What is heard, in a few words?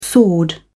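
"sword", British English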